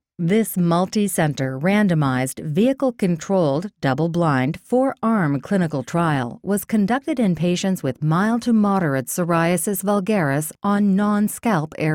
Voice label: Attractive Sophisticated